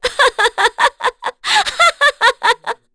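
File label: Vox Happy, korean; FreyB; kingsraid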